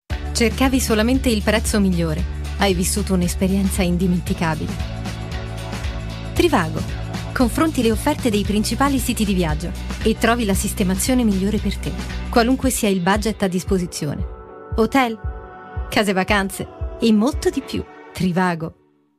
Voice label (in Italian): spot pubblicità; Trivago hotel vacanze e molto altro